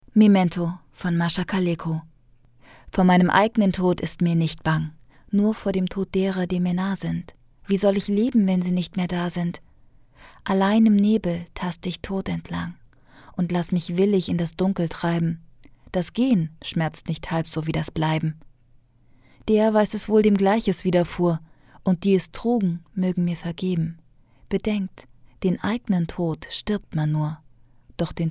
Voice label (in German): Hoerbuch; Memento